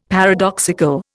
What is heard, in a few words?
"paradoxical", Indian; Dictionary